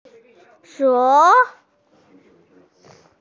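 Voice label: "xiong2", Chinese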